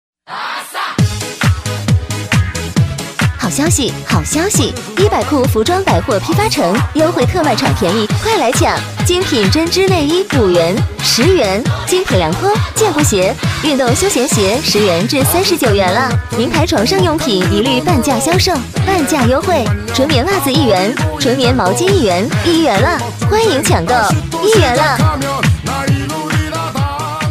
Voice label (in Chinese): 甜美活力女声 服装百货批发; 激情力度